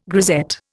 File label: "grisette", Indian